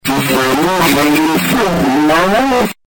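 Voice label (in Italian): "se salva", Italian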